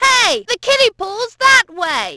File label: kiddie, Brit; Splashdown; XBOX